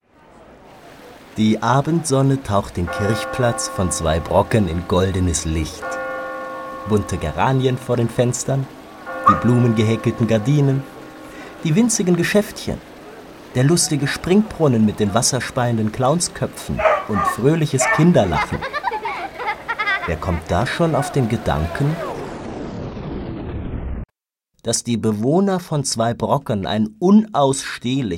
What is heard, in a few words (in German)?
Erzaehler; Milch mit Honig